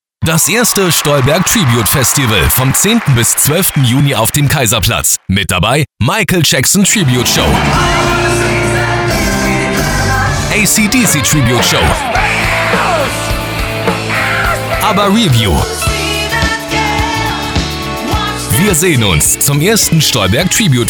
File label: Radiospot; Stolberg-Tribute Festival2022